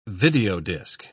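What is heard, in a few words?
"videodisk", British English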